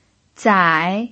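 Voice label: "zai3", Mandarin Chinese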